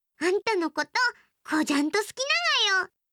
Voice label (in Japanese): 好きです 高知; imas; 亜美